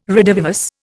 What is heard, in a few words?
"redivivus", Indian